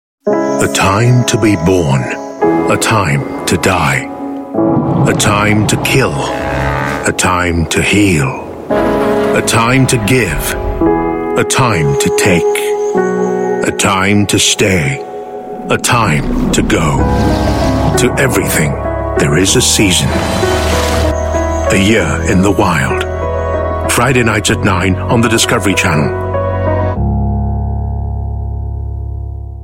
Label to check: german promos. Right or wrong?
Wrong